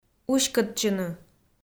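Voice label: "уськӧдчыны", Komi, female; kpv audio dict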